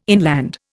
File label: "inland", Indian